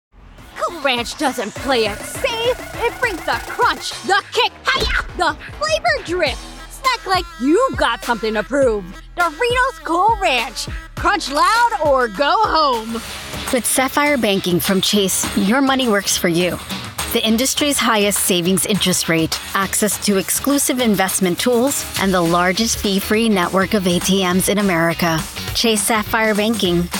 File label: Commercial